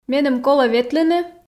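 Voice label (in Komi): меным колӧ ветлыны, Komi, female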